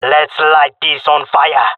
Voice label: request fire, male; player vo; Counter Strike Model Pack; CS:GO

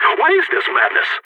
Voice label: killed friend, balkan; vo

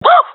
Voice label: round winning, professional epic

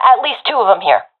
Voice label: sees multiple enemies, professional fem; Counter Strike Model Pack; CS:GO

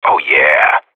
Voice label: radio bot cheer; Counter Strike Model Pack; CS:GO

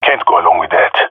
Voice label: disagree, male epic; player vo; Counter Strike Model Pack; CS:GO

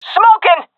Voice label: throwing smoke, seal fem; vo; Counter Strike Model Pack; CS:GO

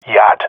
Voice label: "yard", male; vo; agents; Source Model Packs; Counter Strike Model Pack; CS2; jungle male epic

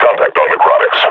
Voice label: announce enemy zombie, combine grunt; Half Life Model Pack

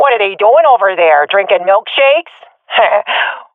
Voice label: round winning, swat fem; vo; Counter Strike Model Pack; CS:GO

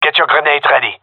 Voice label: request grenade, male; vo

